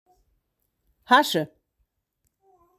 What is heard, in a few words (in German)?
"Hasche" "Haintchen"